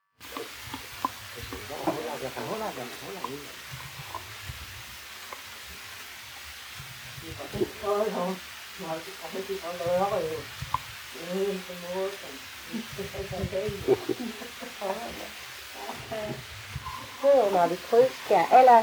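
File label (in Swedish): Allerum Skåne, dialekter; Folkminnen; Svenska sagor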